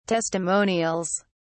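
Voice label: "testimonials", English, British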